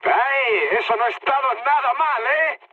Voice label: trick, mood high, speech; War Thunder

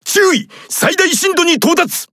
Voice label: Jp Speech Alarms Sub Max Depth; World Of Warships

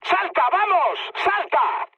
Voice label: bailout, mood med, speech; War Thunder

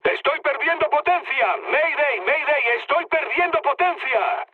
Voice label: damaged engine, mood med, speech; War Thunder

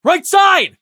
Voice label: flank right, voice; Escape from Tarkov

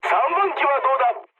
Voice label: jp chat; War Thunder

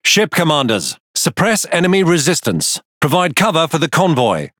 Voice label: Pirate; World Of Warships

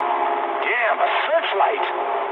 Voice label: eng searchlite mood med, speech eng; War Thunder

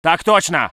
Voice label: voice sov infantry

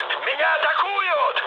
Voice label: aircraft damaged, mood med, speech ru

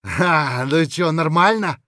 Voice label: scav mutter, voice; Escape from Tarkov; scav6

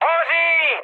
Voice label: on fire, speech cze, mood high; War Thunder

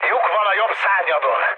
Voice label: right wing, speech hun; War Thunder

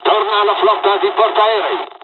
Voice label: second wave flyaway, dialogs italian; War Thunder

